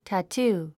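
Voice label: "tattoo", English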